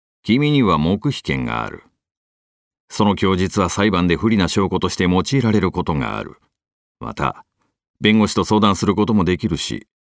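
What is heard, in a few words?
male ojisan; nenrei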